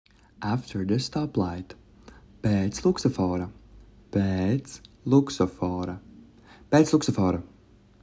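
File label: After the stoplight, Latvian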